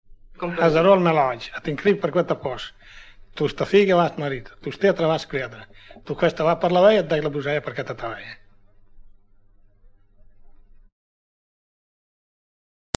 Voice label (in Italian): indovinello, romagna